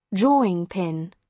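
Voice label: "drawing pin", British English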